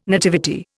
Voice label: "nativity", Indian

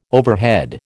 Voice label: "overhead", American

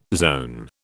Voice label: "zone", British

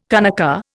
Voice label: "kanaka", Indian

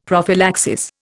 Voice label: "prophylaxis", Indian; Dictionary

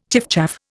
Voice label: "chiffchaff", Indian

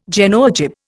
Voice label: "Genoa jib", Indian

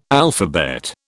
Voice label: "alphabet", British

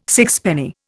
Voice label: "sixpenny", Indian

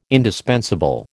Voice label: "indispensable", American

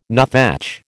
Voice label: "nuthatch", American

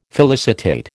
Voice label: "felicitate", American

